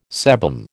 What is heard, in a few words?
"sebum", American